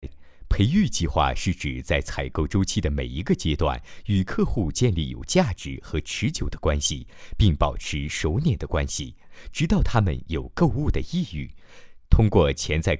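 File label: chinese mandarin male e-learning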